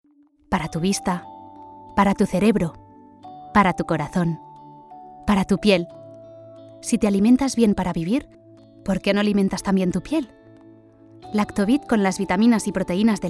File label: female spanish skin care comercial; ideasonora